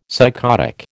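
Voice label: "psychotic", American English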